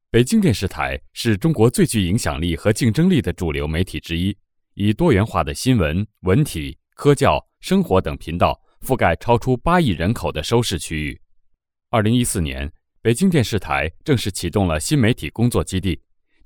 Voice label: male china